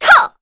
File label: Attack, kr; Veronica; kingsraid; voices heroes